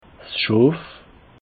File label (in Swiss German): "schof", alsace